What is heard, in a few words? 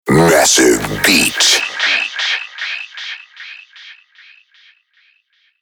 sweeper massive beat; killerintrosnet